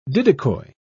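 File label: "didicoi", British English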